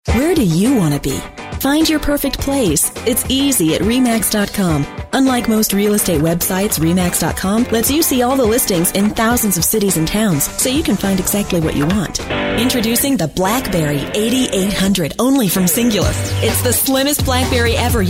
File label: Commercial